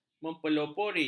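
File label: "mempelopori", Malay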